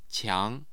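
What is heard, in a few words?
"qiang2", Mandarin Chinese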